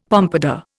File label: "pompadour", Indian; Dictionary